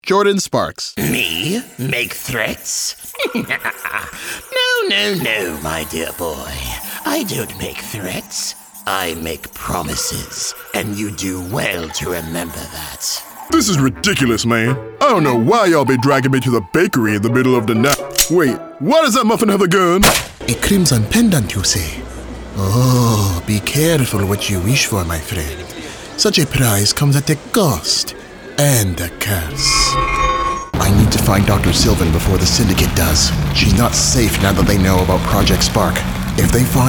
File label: Animation